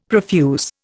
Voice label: "profuse", Indian; Dictionary

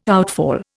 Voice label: "outfall", Indian

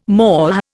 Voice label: "MOH", Indian

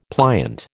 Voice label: "pliant", American

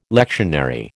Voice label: "lectionary", American

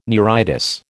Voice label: "neuritis", American

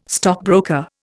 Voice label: "stockbroker", Indian

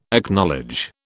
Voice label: "Acknowledge", British

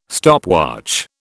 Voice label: "stopwatch", British

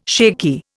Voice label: "shaky", Indian

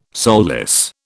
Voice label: "soulless", British

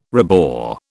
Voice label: "rebore", British

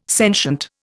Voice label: "sentient", Indian

